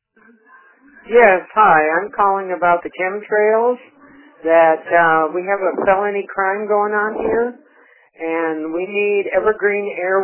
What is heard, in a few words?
voice message